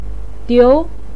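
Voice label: "diu" Mandarin Chinese, second tone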